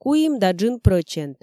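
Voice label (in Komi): 3,5 прӧчент, Komi, female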